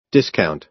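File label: "discount", American English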